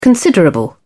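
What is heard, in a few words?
"considerable", English, British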